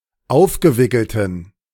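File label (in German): "aufgewickelten", German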